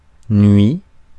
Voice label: "nuit", français

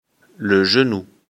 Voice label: "genou", lecture fr

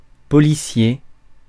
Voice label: "policier", français

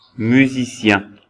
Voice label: "musiciens", français

